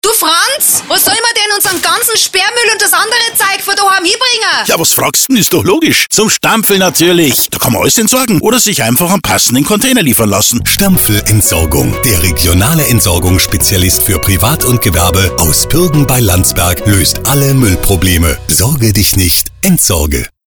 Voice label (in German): FM Spot; TOP FM